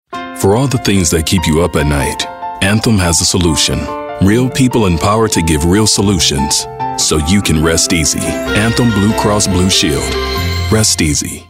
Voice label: warm